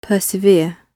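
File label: "persevere" British English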